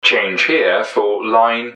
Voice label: change, english man, subway announcers